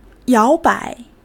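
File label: "yáobai", Chinese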